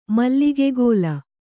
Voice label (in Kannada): "ಮಲ್ಲಿಗೆಗೋಲ", kannada